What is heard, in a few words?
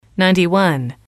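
"ninety one", English, female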